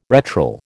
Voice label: "retral", American